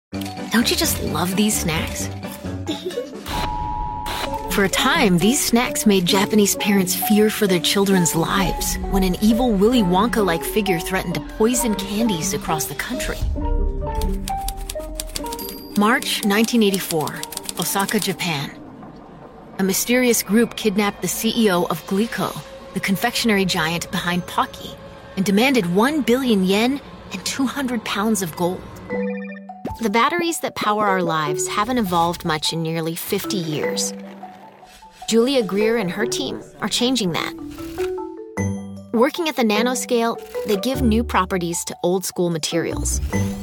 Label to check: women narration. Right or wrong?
Right